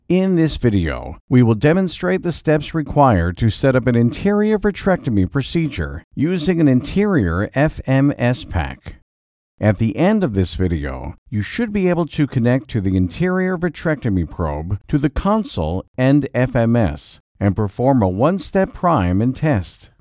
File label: english american e-learning